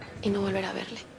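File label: VoiceActing Spanish, female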